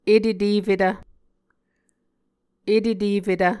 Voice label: "etetefetv", muscogee